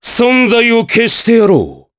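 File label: Vox Skill, voices, Japanese; Nicx; kings raid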